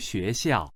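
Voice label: "xue2xiao4", Chinese, male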